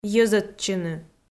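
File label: "йӧзӧдчыны" Komi, female; audio dict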